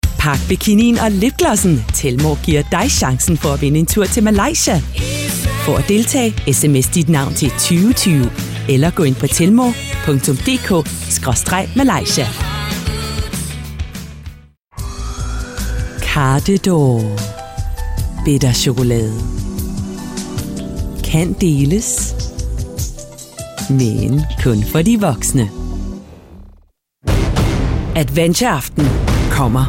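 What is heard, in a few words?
Danish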